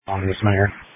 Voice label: Sheriff Dispatch